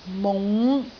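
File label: "mung5", Cantonese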